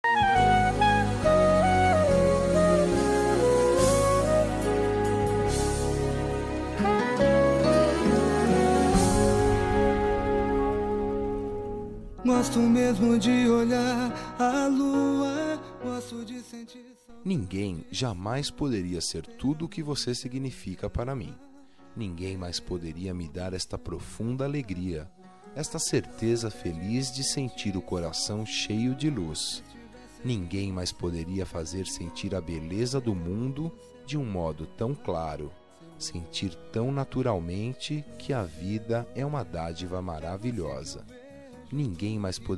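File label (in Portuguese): romantica masculina; telemensagem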